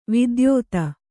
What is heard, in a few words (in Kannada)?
"ವಿದ್ಯೋತ", Kannada